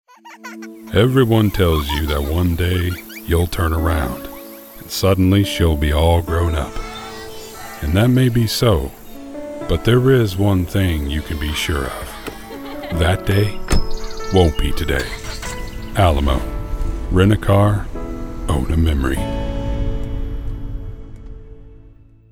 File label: Friendly Warm